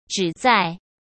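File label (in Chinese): "旨在" Mandarin Chinese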